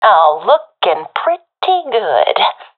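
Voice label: compliment, professional female; vo; Counter Strike Model Pack; CS:GO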